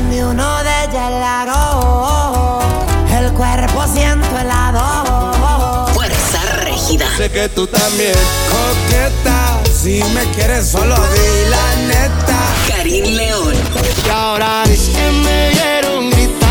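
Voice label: Spanish Imaging Regional Mexican, radio imaging voice over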